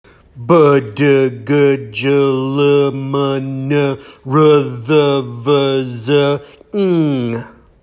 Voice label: Voiced Consonant Chant Slow